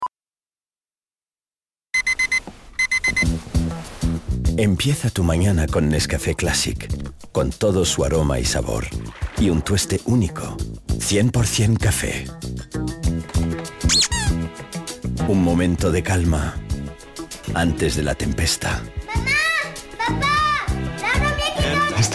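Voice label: Spot TV, Spanish; NESCAFE Classic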